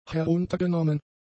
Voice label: "heruntergenommen", German; adjectives